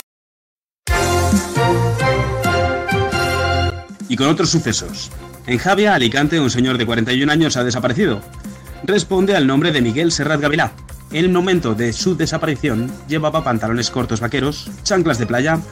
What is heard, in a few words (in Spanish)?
locutor noticias; miguel ha desaparecio